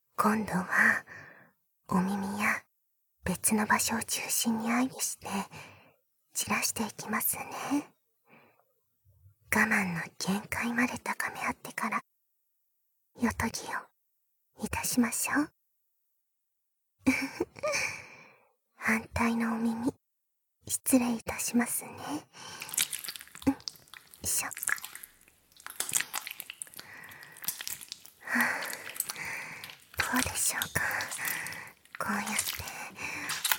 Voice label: 耳指攻め囁き焦らし（バイノーラルマイク 効果音あり大きめ）

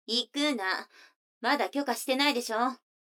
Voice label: 女の子台詞

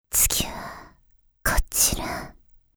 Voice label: 「次は、こちら！」（ささやき）; ネクストパーツ（エクササイズ間）

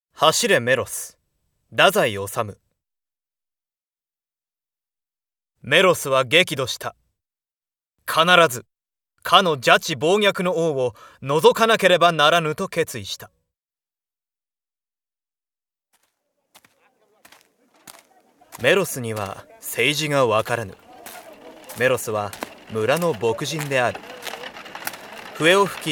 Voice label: 本編（BGMなしver）

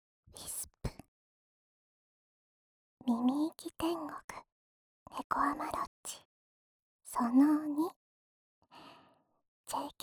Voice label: タイトルコール

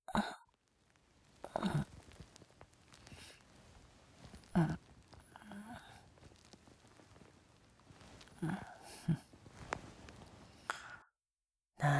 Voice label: 添い寝

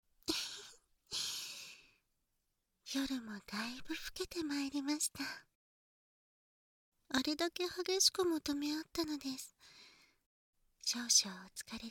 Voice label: 添い寝（囁き重視編集 効果音無し）

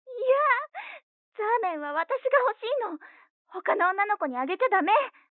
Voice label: 彼女の電話台詞, ASMR

